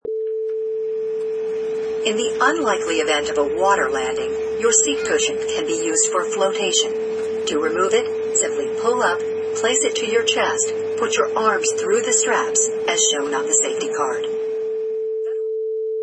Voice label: Plane Safety Info